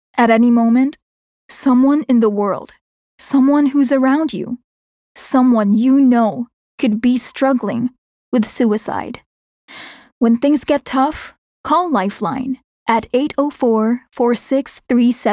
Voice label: English, Concerned, Hopeful